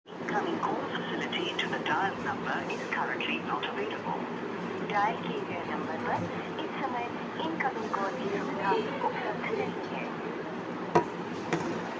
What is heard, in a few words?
call recording